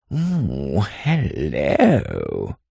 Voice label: human voice male english saucy flirtatious ohh hello